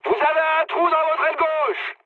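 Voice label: left wing, mood high, French; War Thunder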